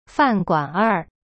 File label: "饭馆儿", Chinese; fan guanr